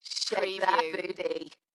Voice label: UK female Shake that booty Dalekness; beanstalk audio

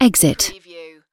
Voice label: "exit", UK female; lynne publishing

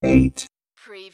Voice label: Robot voice "8"; lynne publishing

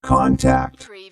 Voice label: Robot voice, "contact"; lynne publishing

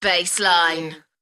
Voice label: "Bassline", UK female; beanstalk audio

